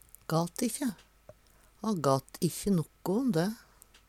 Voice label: gat ikkje, Numedalsmål; sa ingenting; Uttrykk

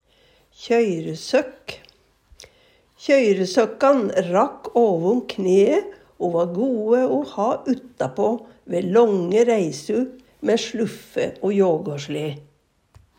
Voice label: kjøyresøkk, Numedalsmål

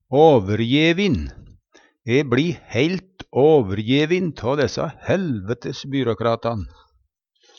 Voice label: åverjevin, Numedalsmål; E bLi heilt åverjevin tå dessa byråkratan; Adjektiv

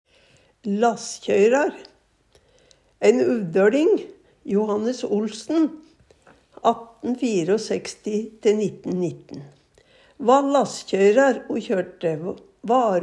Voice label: lasskjøyrar, Numedalsmål; Johannes Olsen 1864-1919, va lasskjøyrar o kjørte varu frå Kongsberg te UvdaL.

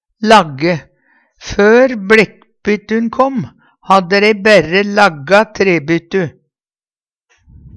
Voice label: lagge, Numedalsmål; Lagg er eig. spor i stavane som botnen er felt inn i

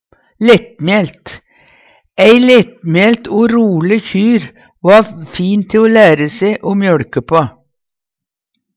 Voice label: lettmjaelt, Numedalsmål; lettmjælta; Adjektiv

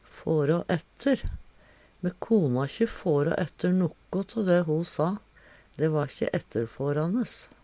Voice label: fårå ætte, Numedalsmål

